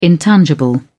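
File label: "intangible", British English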